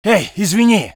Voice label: voice sov infantry